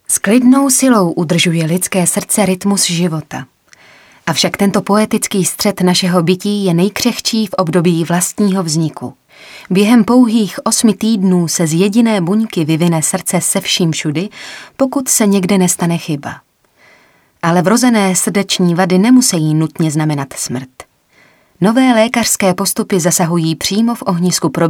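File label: Female Czech documentary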